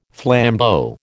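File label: "flambeau", American English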